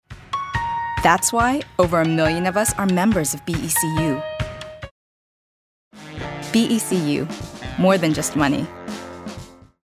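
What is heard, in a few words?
commercial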